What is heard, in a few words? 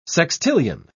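"sextillion", British English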